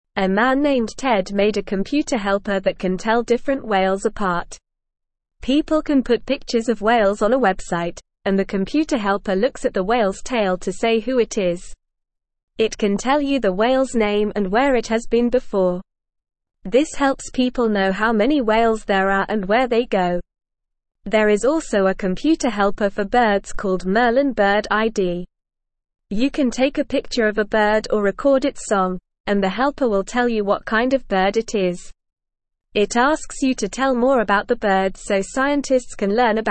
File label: English Beginner NORMAL Reading; English Newsroom